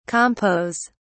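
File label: "compos", English, British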